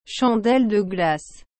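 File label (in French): "chandelle de glace", French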